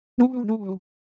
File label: Japanese, Ideo Phones